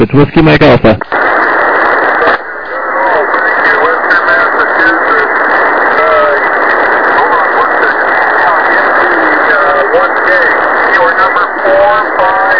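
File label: lower sideband 7MHz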